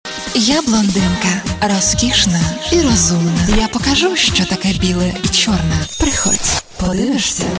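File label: Ukrainian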